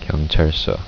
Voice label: "policestation", korean

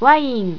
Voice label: "wain", japanese

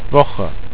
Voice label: "woche", German

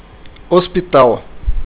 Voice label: hospital, portuguese

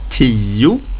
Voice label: "tio", swedish

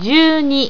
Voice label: "juuni", japanese

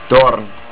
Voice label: Tower, estonian

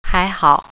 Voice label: soso, mandarin

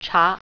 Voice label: tea, mandarin

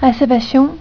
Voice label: "Reservation", Danish

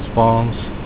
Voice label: "spanish", Afrikaans

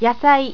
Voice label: "yasai", japanese

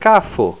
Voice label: "kafo", esperanto

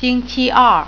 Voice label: "Tuesday", Mandarin

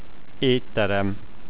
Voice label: restaurant, hungarian

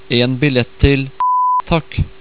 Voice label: "en bille", Norwegian

